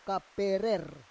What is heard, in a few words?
"kaperer", Roon